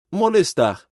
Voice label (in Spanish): Molestar en portugues